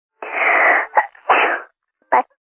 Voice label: Phone Sneeze Bye, Female, Telephone Voice